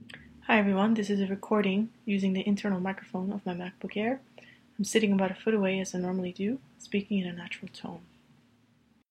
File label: Internal Microphone